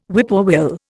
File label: "whippoorwill", Indian